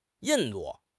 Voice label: "Yin4 du4", 汉语; 汉语口语新时代-初级下

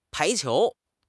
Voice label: "pai2 qiu2", 汉语口语; 汉语口语新时代-初级下

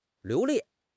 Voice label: "liu2 li4", 汉语口语; 汉语口语新时代-初级下

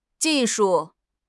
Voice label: "ji4 shu4", 汉语口语; 汉语口语新时代-初级下

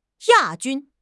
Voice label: "ya4 jun1", 汉语口语; 汉语口语新时代-初级下

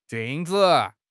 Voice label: "jun1 zi3", 汉语口语; 汉语口语新时代-初级下

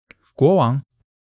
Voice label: "guo2 wang2", 汉语; 汉语口语新时代-初级下

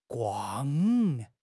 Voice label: "guang4", 汉语口语; 汉语口语新时代-初级下

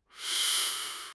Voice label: "shu1 shi4", 汉语口语; 汉语口语新时代-初级下

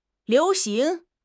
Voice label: "liu2 xing2", 汉语口语; 汉语口语新时代-初级下

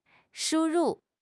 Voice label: "shu1 ru4", 汉语口语; 汉语口语新时代-初级下

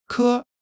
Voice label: "颗", 汉语; 汉语口语新时代-初级下

